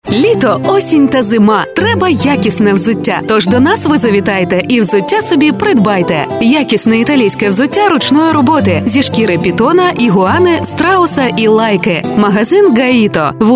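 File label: Ua, Ukrainian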